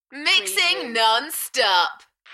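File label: British female Mixin non-stop Enthusiastic; beanstalk audio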